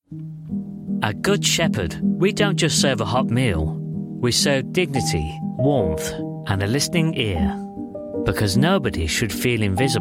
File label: ad; good shephard